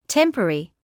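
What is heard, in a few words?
"temporary", British English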